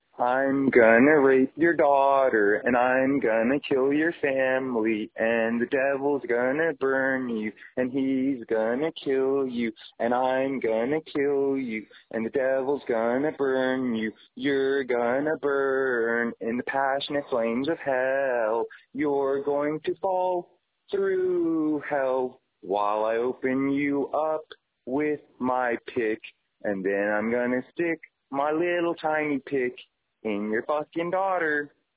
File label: voicemail